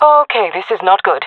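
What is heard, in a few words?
scared, female voice; player vo; Counter Strike Model Pack; CS:GO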